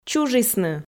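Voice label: "чужисны", Komi, female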